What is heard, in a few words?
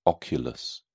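"oculus", English, British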